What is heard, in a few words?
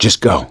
emphatic go quiet, survivor voice; gambler